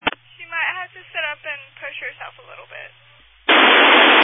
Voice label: Family Radio Service (FRS)